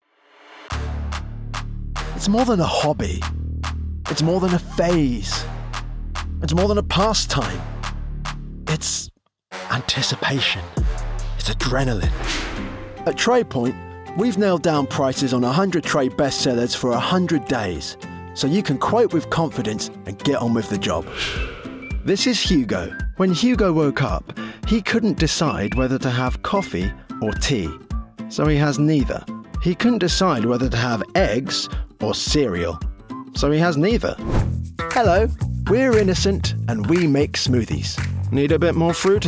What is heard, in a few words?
commercial, gentle